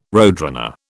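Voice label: "roadrunner", British English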